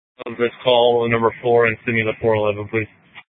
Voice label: Sheriff, Maricopa County P25

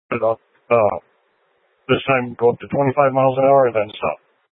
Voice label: Light Rail Emergency, scanner

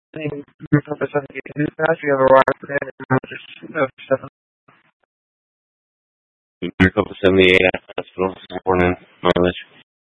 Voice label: Phx PD Fire Dispatch

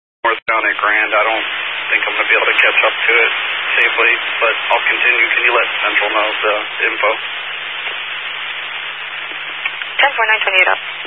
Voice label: Highway Patrol, Phoenix Metro West Area, scanner

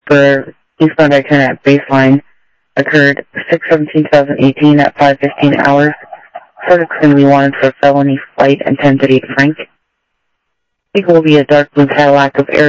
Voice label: scanner audio; Thompson Peak; DPS CI-Ctrl

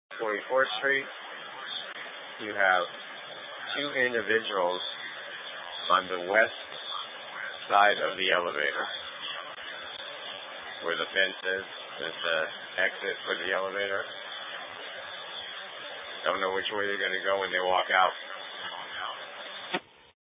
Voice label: Light Rail Ops, scanner

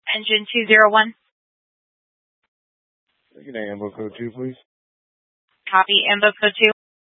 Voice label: Fire Departments Mesa Simulcast; TOPAZ

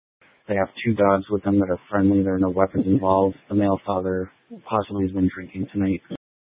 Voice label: Police Dispatch

